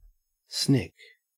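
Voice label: "snick", Australian English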